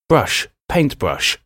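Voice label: "brush paintbrush", English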